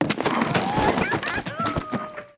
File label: pick N Click Scene Train Station Woman